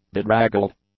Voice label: "bedraggled", English; adjectives